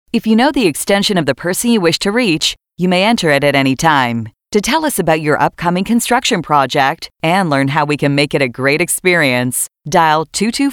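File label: US PHONE, American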